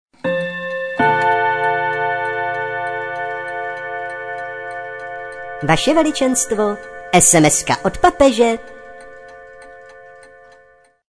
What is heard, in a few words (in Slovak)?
sms hlásky cz